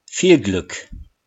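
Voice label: "viel Glück", German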